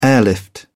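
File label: "airlift", British English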